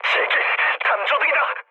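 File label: Korean searchlight mood med, speech; War Thunder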